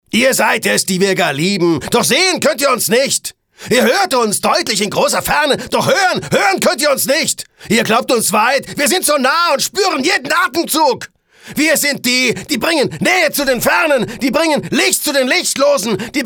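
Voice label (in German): aggressiv, Sprecher, Männer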